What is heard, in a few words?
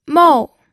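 "mou", fourth tone, pinyin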